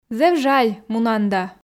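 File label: "зэв жаль мунан да", Komi, female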